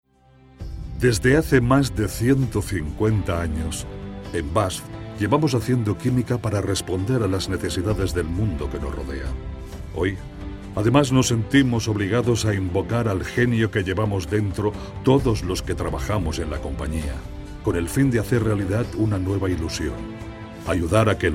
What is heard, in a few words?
male spanish chemistry comercial; ideasonora